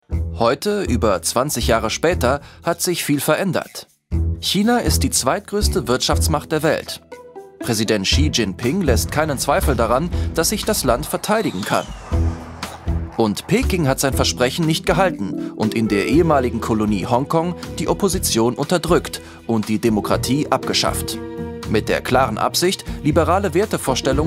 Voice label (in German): Doku de Kommentar kritisch; ARTE; Crazy Borders